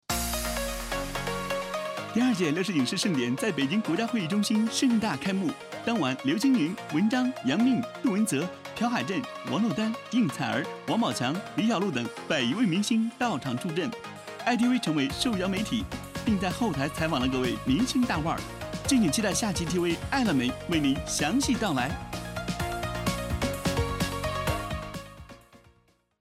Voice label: 每日文娱播报青春活力版混音

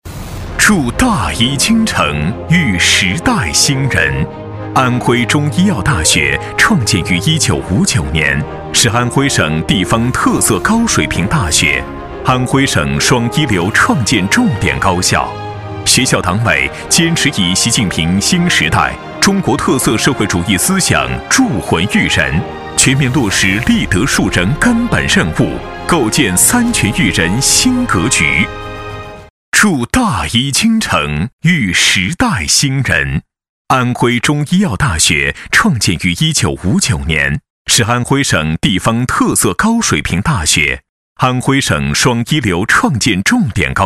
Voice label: 汇报片; 安中医三全育人

